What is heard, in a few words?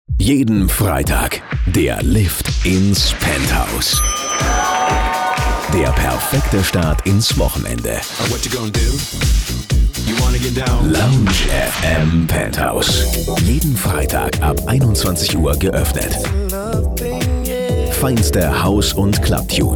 trailer